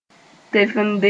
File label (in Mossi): "tefende", moore